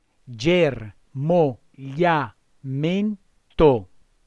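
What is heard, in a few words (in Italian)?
"germogliamento" Italian word, voce; dʒermoʎʎa'mento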